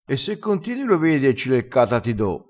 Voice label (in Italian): "cileccata", crespinese